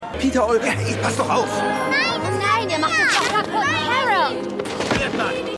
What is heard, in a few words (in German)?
Passant Maedchen Mutter; Passant M3; Maedchen Carol; Mutter M4